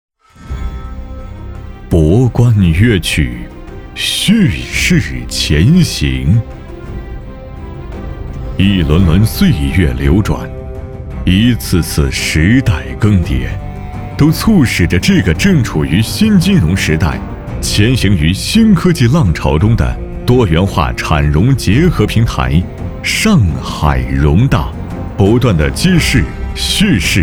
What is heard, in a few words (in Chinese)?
男 城市专题; 上海荣大